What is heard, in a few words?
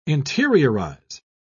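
"interiorize", English, British English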